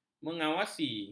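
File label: "mengawasi", Malay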